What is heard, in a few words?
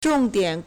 "zhong4 dian3", Chinese